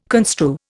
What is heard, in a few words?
"construe", Indian